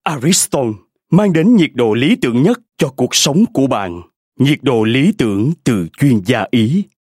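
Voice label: Vietnamese Voice Sample Male South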